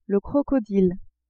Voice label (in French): "crocodile", French